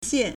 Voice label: "xian4", Chinese